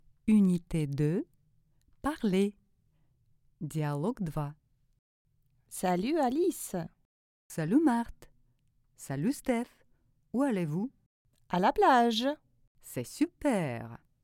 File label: dialog